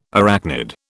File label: "arachnid", British